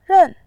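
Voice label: "ren", Mandarin Chinese, fourth tone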